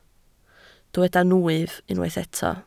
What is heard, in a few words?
"nwyf", Welsh